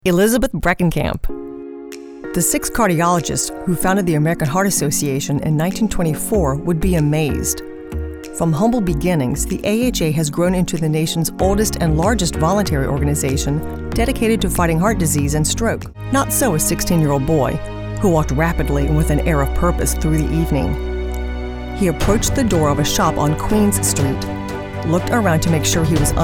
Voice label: Narration